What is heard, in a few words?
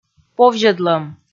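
"повзьӧдлӧм", Komi, female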